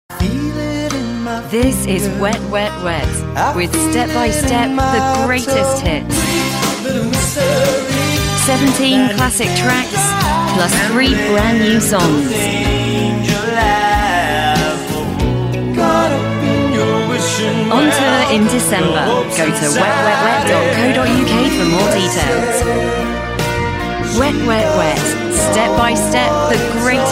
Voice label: Promo